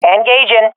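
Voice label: attacking, swat female; vo; Counter Strike Model Pack; CS:GO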